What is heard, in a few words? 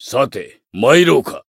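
win, Japanese; cyrus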